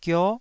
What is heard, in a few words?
"gio9", taiwanese